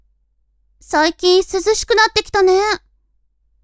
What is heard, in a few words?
woman